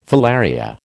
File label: "filaria", American